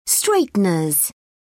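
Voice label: "straighteners", British English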